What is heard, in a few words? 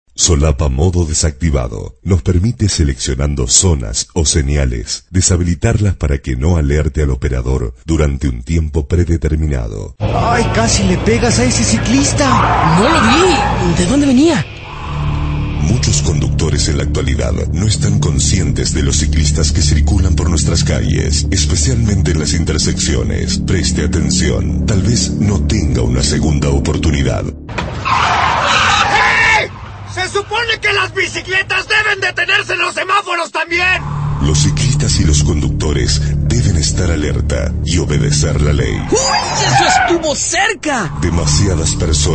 spanish males